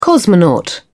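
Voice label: "cosmonaut", British English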